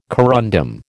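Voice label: "corundum", American English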